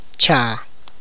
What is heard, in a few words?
"one", tlacochahuaya